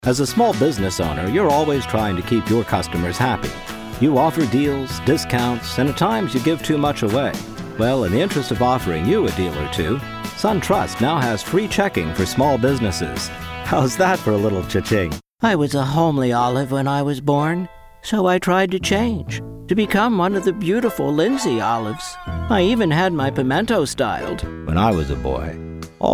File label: Commercial